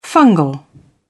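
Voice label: "fungal", British English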